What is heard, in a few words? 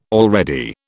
"already", British